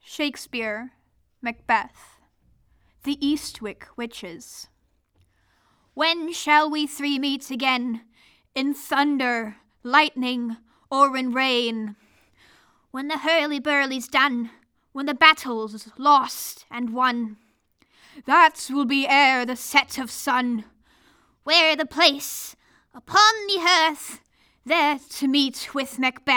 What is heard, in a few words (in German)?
Lesung; Shakespeare